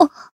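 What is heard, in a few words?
damaged, Japanese; ruina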